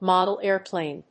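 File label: "model airplane", English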